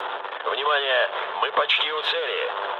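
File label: near waypoint, mood low, Russian; War Thunder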